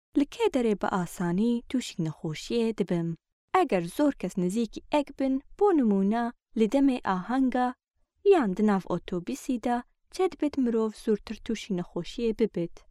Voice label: female kurdish voice over narrative